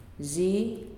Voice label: Mustard, Nalik